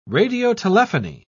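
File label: "radiotelephony", British English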